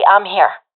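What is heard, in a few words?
in position, professional female; vo; Counter Strike Model Pack; CS:GO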